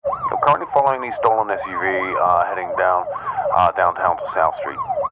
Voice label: emergency police radio male officer in car sirens currently following stolen suv downtown